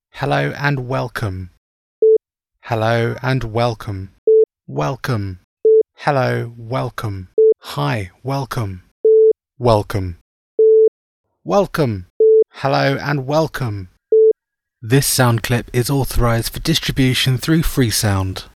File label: British hello welcome